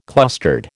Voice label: "clustered", American